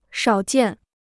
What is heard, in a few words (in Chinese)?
"少见", Chinese